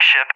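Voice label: "ship" English, speech; DCS; Allied Flight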